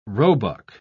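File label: "roebuck", British English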